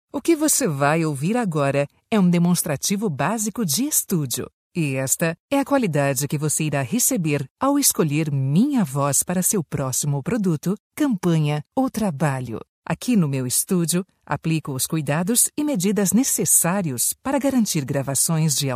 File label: brazilian f learning, portuguese; voice demos